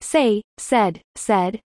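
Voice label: "say said said", English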